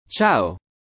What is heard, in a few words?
"Ciao", Italian; italian for beginners